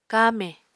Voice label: "kamè", Apatani